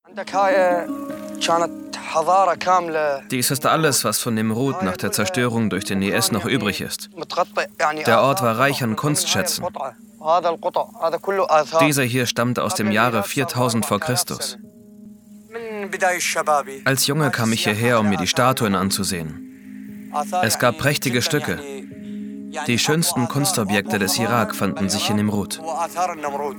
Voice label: Voice Over; IS im Fadenkreuz